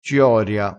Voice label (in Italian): "cioria", crespinese